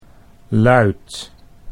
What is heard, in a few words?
"luit", Dutch